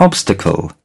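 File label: "Obstacle", British English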